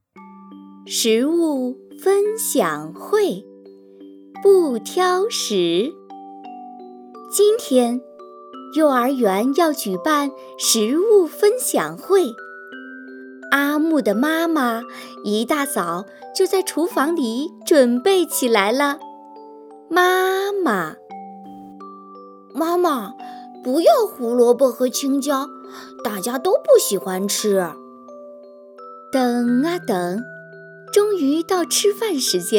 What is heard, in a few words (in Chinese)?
绘本清雅